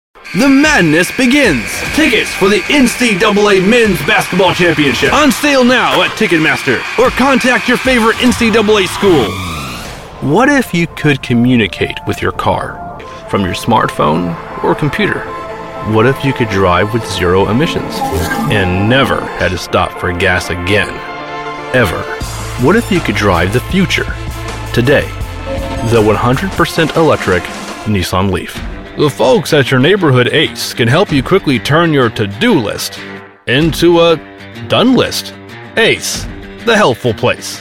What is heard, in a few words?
commercial